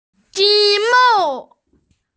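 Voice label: "ji mu", Mandarin Chinese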